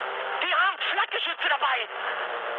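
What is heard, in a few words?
German damaged aa mood high, speech; War Thunder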